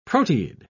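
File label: "proteid", British English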